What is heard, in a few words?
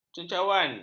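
"cencawan", Malay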